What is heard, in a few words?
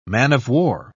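"man-of-war", English, uk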